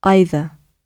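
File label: "either", British English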